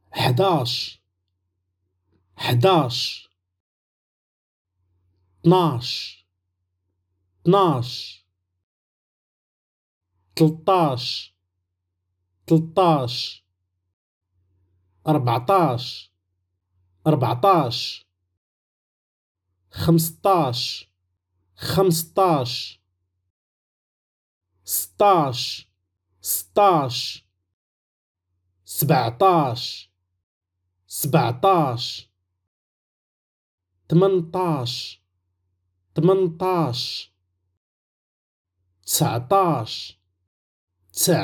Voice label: numbers 11 to 20 in Moroccan arabic step by step pronunciation